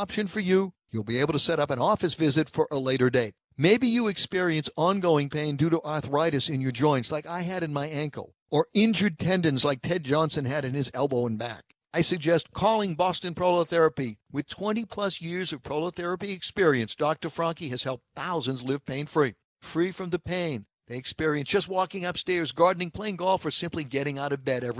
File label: Phone Consultation